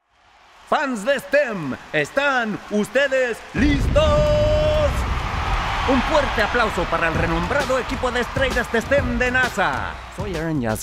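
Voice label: Dubbing Spanish; voiceover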